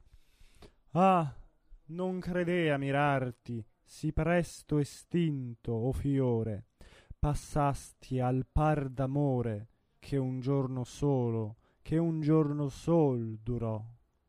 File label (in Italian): espressivo; Bellini; Sonnambula; Ah non credea mirarti